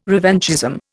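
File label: "revanchism", Indian English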